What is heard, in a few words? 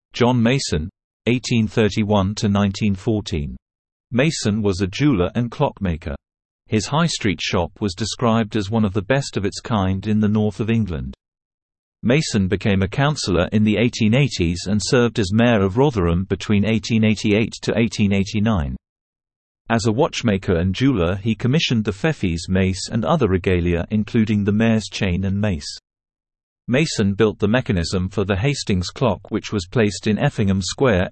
Male